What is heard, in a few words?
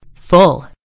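"full", British English; gcompris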